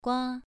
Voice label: "gua2", pronunciation

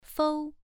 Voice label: "fou1", pronunciation

